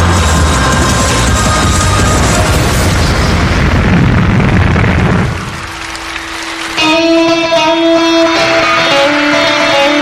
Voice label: Music Awards Show Open Japanese, Japanese Male

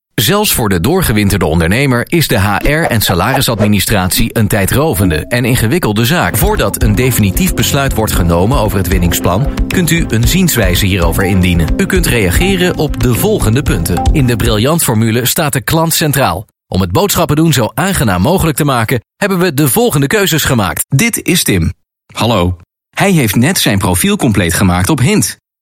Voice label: Flemish eLearning, Male

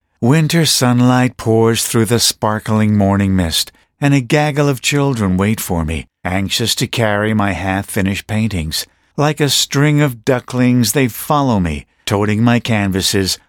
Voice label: US English Childrens Audio Book Reads

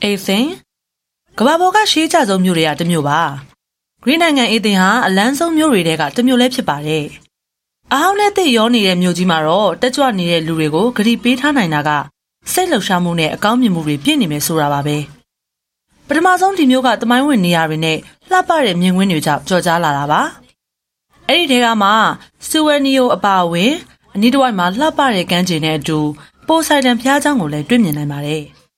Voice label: Burmese Female Sample

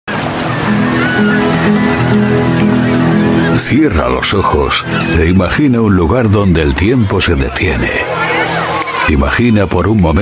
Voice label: Spanish European Tourism

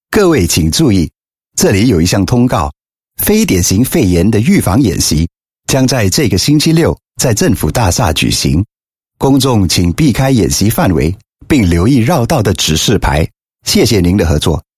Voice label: Mandarin Public Announcement, Male; China

